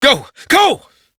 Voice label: Adult, en; Warframe; Duviri; Cinematics; Steal Horse

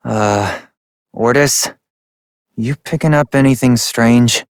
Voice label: Operator Voice, en; New War; Ascend Tower

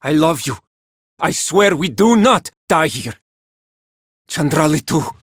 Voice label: Villagers Male, Cetus Invasion

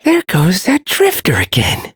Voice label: Ambient Sedentary, en; Duviri

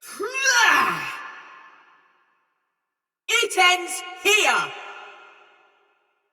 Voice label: Attack Heavy Soldier Mace Agent Female; Barks Battle; Sinecure Melee Mace Agent

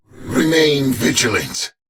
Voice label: Patrol, en; Warframe; Battle; Dax; DaxKnight

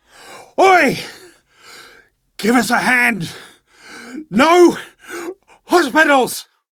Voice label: Downed, en, Dialog Barks Battle; Warframe; Chipper

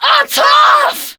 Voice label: Attack, Female

